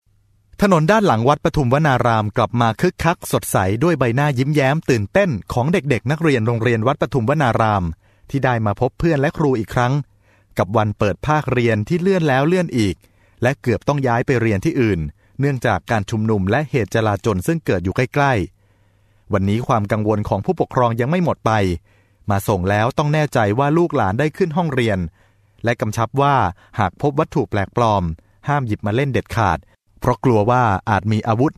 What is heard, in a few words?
Thai M Anchor; voice-over